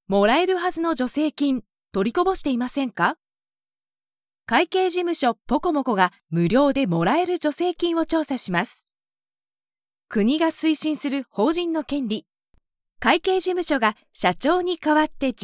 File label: japanese promos